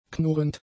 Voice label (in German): "knurrend", German; adjectives